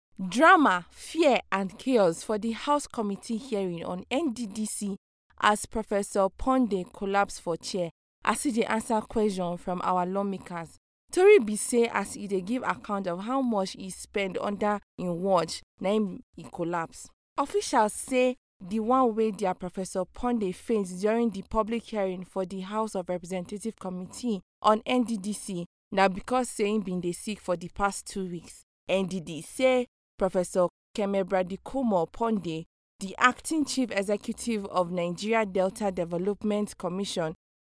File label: PIDGIN